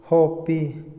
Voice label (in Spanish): permitir, Otomí, hombre; Sociales; Vocabulary; INALI